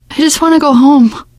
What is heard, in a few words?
going to die, teengirl; voice; source engine; left 4 dead 2; survivor